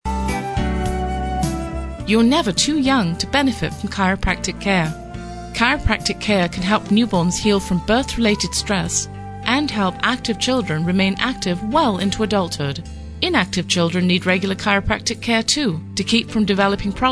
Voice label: British